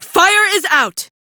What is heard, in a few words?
Female; Warframe; Railjack; Cephalon Suda